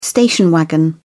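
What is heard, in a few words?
"station wagon", British English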